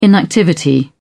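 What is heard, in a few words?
"inactivity", British English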